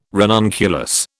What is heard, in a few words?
"ranunculus", British